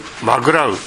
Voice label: "magurau", minamiyamagata

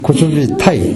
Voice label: "kochobitae", minamiyamagata

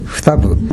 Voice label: "futabu", minamiyamagata

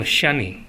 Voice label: "shane", minamiyamagata

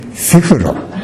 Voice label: "sefuro", minamiyamagata

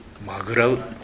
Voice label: "magurau", minamiyamagata

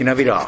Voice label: "henabera", minamiyamagata

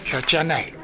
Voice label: "yachanae", minamiyamagata

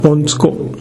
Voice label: "bontuko", minamiyamagata

